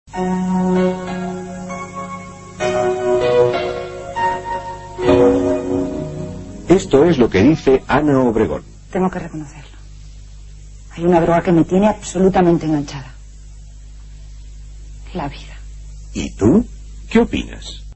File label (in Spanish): Campañas Testimoniales; Ana Obregón